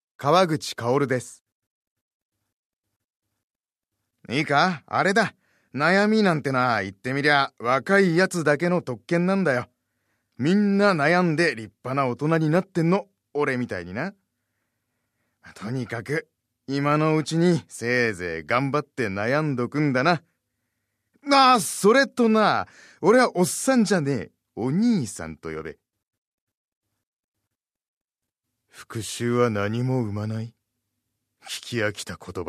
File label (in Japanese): voiceactor man